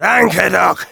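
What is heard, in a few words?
Demoman thanks for the heal, German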